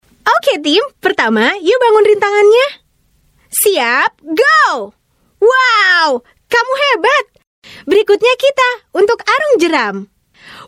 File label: Bahasa Indonesia Female; Lego Friends TVC